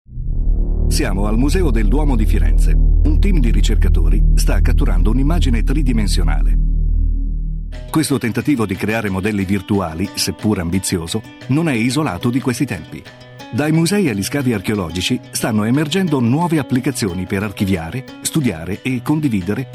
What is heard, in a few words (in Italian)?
Documentario; DEMO DOCUMENTARY